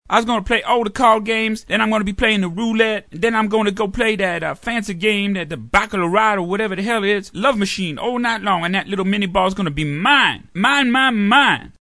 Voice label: Black Dude, Young, cartoon; animation